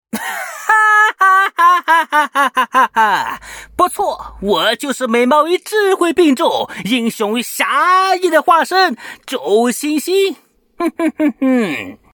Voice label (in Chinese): 国语; 周星驰